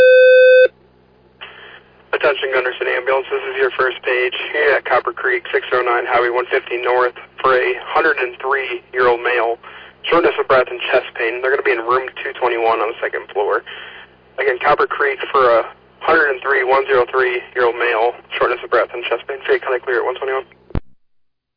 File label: Ambulance, dispatch